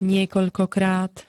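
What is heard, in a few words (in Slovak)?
"niekoľkokrát", Slovak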